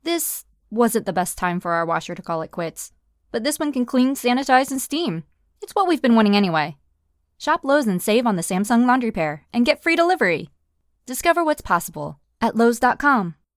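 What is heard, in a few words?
Commercial Natural Conversational, Female; American English voice-over artist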